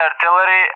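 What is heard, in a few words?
"artillery", English, Russia; Target; DCS; Allied Flight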